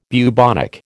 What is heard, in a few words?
"bubonic", American